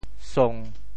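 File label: "song1", Teochew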